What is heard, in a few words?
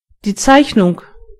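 draw, German voices words; gcompris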